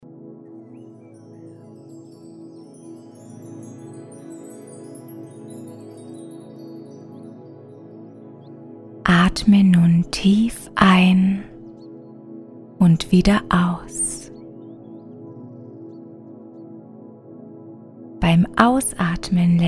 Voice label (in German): Einleitung Relaxing Gedankenklaerung